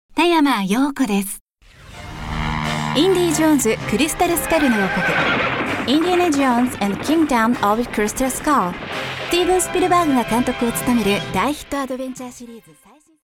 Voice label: female language education